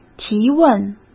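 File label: "提问", Mandarin Chinese; chinese flashcards; hsk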